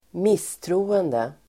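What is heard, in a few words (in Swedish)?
"misstroende", Swedish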